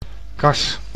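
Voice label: "kas", Dutch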